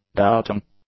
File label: "doubting", English; adjectives